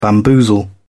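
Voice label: "bamboozle", British English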